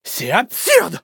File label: hit fr; cookierun